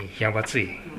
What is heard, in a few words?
"yabatui", minamiyamagata